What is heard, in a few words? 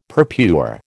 "purpure", American